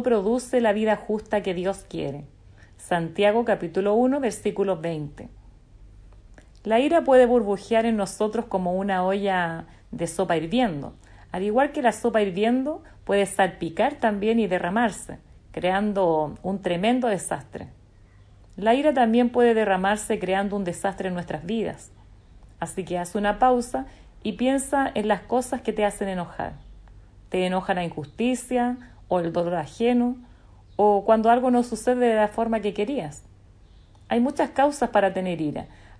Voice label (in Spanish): devocional